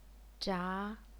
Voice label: "zha2", chinese pinyin

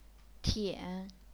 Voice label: "tian3", chinese pinyin

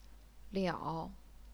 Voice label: "liao3", chinese pinyin

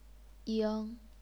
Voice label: "ying1", chinese pinyin; 瑛; 璎; 英; 莺; 樱; 撄; 嘤; 罂; 婴; 鹦; 膺; 鹰; 应; 缨

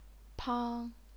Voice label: "pang1", chinese pinyin

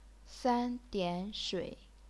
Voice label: "san1 dian3 shui3", chinese pinyin

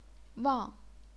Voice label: "wang4", chinese pinyin

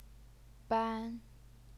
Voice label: "ban1", Chinese pinyin

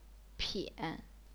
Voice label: "pian3", chinese pinyin

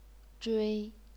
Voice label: "zhui1", chinese pinyin

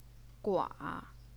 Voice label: "gua3", Chinese pinyin

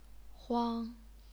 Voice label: "huang1", chinese pinyin

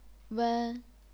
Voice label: "wen1", Chinese pinyin

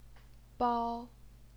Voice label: "bao1", Chinese pinyin

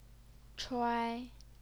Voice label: "chuai1", Chinese pinyin

